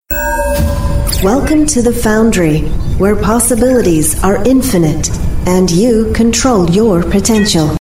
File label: US English AI, Female